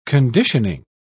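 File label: "conditioning", British English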